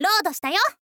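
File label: "load shita yo", Japanese, girl; voice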